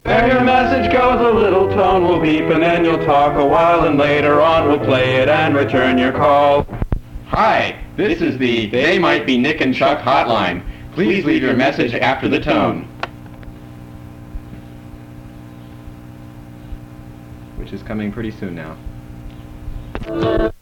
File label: answering machine, outgoing answering machine messages; The answering machine was the kind that used a special cassette, with a fixed-length loop; recorded directly into the answering machine; Providence circa 1988; The They Might Be Nick and Chuck Hotline